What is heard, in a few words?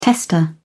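"tester", English, British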